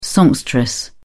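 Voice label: "songstress", British English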